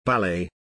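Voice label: "ballet", English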